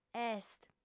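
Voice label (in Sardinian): "est", female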